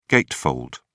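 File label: "gatefold", British English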